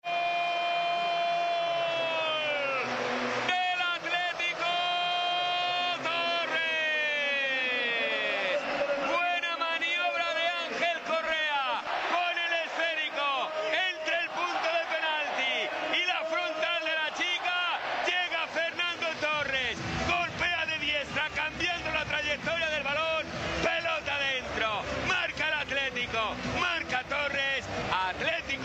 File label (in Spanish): NARRACION GOL